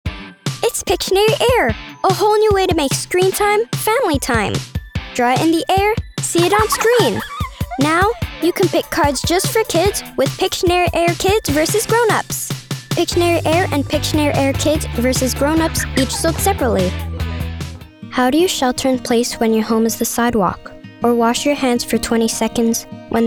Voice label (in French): Demo en anglais